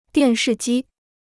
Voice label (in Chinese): "电视机", Chinese